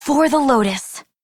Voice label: Operator Voice

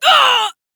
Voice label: Pain, Female; Warframe; Railjack; Cephalon Suda

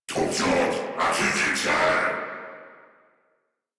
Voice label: Attack, en; Warframe; Corpus Amalgam Pilot

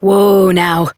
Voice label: Horse Stop Walk Adult; Duviri

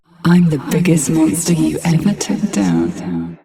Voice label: Ambient Barks, en

